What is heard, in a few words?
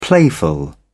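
"playful", British English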